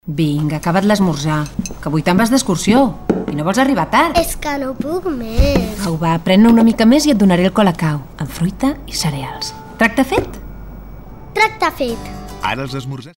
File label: female catalan drink comercial; ideasonora